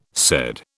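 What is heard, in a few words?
"said", British English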